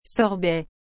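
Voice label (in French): "sorbet", French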